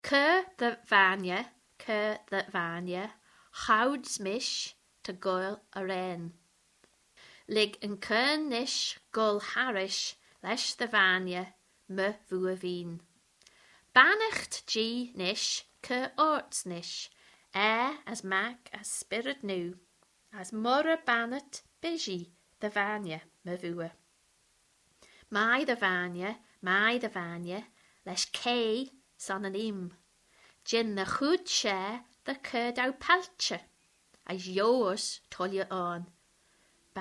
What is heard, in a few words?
manx pronunciation; cur dty vainney